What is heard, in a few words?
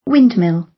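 "windmill" British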